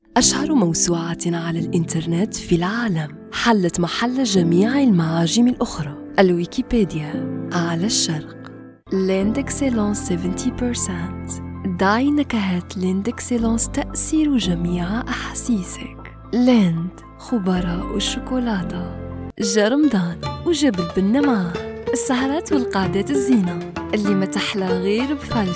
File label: ARABIC FEMALE voice